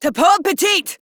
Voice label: Reload Female; en; Modular Corpus; Battle Barks

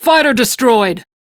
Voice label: Crew Gun Kill Fighter, Steel Meridian Female; Dialog; en; Warframe; RailjackCrew

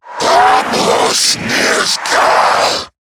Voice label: Player Spotted, en; Zariman Wraith

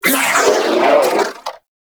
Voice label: Vocal Death; Warframe; Sentients; Battalyst

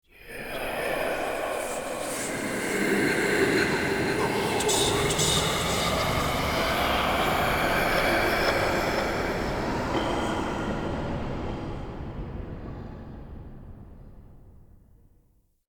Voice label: Spirit Talk, Ambience Crypt; Champion Crypt

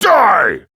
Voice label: Angry; Warframe; Tyl Regor Boss; Efforts

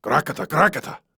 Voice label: Banter; Warframe; Clem; Dialog Barks Battle

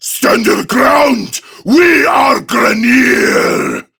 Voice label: Attack Prime Grineer Male; Tau; Battle Barks

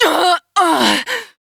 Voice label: Death, Female; Warframe; Railjack; New Loka

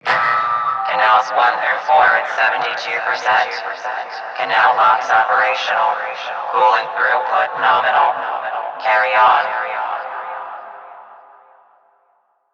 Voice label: PA System, en; Warframe; Solaris Venus

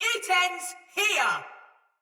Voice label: Attack Heavy, Barks, Battle, Female; Sinecure Soldier Mace Agent; Sinecure Melee

